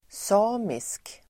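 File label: "samisk", Swedish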